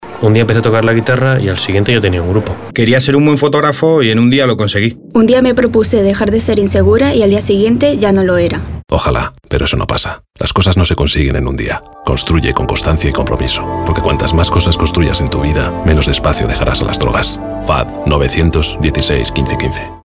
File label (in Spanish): CAmpañas Radio; Construye Constancia